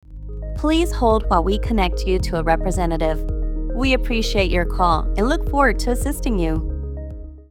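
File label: IVR English; KeyClub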